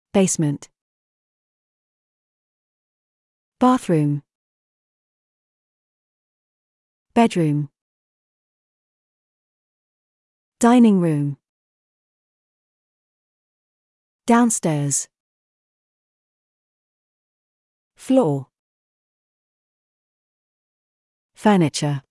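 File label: British; apartments houses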